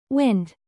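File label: "bass", noun, British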